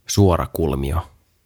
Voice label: "Suorakulmio" "Rectangle"; OTAVA KONSERNI

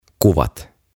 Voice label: "Kuvat", "Pictures"

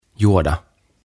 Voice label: "Juoda" "Drink"

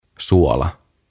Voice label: "Suola" "Salt", suomeksi; OTAVA KONSERNI; tuhatsanaa; aantamisohjeet suomeksi

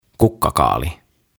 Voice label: "Kukkakaali" "Cauliflower"

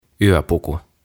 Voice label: "Yöpuku" "Pyjamas"

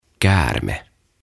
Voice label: "Käärme" "Snake"; OTAVA KONSERNI

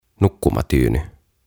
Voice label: "Nukkumatyyny" "Pillow"

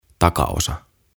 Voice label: "Takaosa" "Back"

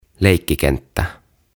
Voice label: "Leikkikenttä" Playground; OTAVA KONSERNI

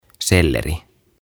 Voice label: "Selleri", "Celery"

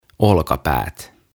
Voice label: "Olkapäät" Shoulders

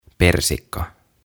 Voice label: "Persikka" "Peach"

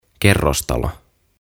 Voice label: "Kerrostalo", "Flats"; OTAVA KONSERNI; tuhatsanaa; aantamisohjeet suomeksi

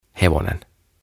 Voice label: "Hevonen", "Horse"; OTAVA KONSERNI

